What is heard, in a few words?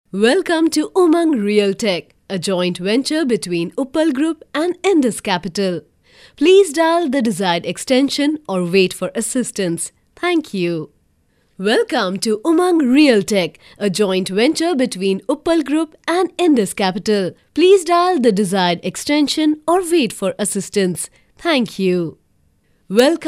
VO, female voice; UMANG 91 fm